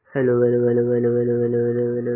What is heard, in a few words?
Voice Note